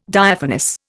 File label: "Diaphanous", Indian